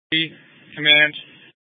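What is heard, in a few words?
Incident Tac 1, Scanner audio recording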